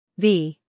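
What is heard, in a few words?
"v", American English; sounds-of-american-english; everyone-can-use-english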